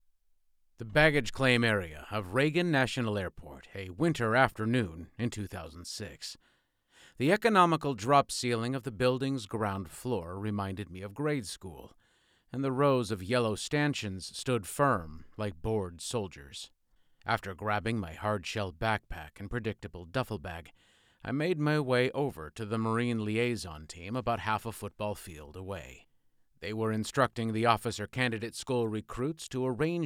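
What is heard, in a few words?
Male 1st Person Autobiography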